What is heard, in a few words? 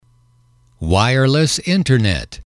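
wireless internet, English, male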